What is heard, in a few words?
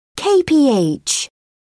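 "kph", British English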